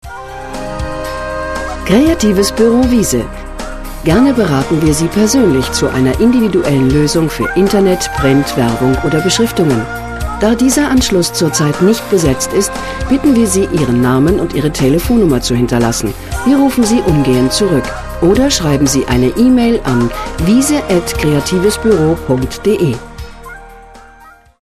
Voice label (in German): mailbox